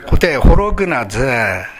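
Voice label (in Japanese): "horogu", 南山形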